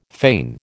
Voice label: "fane", American

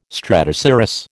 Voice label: "stratocirrus", American

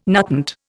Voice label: "nutant", Indian

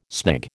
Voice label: "snig", American

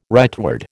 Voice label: "rightward", American

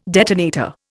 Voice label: "detonator", Indian

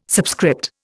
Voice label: "subscript", Indian

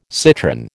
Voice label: "citrin", American

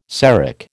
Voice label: "Seric", American

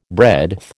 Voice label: "breadth", American

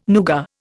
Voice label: "nougat", Indian

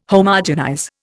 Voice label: "Homogenize", Indian

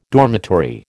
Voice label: "dormitory", American

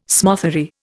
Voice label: "smothery", Indian

